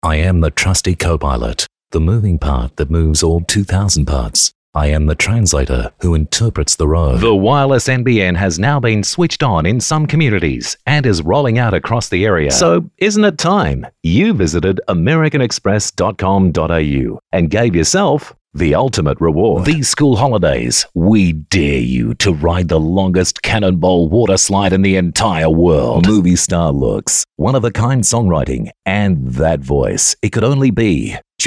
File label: Soft Sell